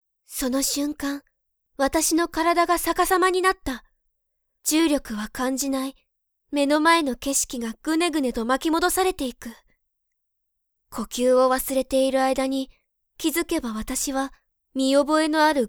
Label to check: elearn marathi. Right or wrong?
Wrong